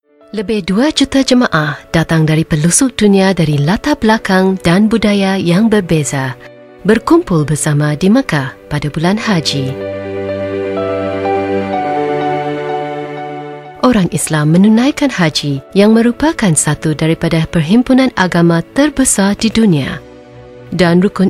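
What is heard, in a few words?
Malay F Commercial, female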